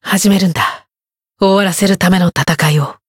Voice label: encount, jp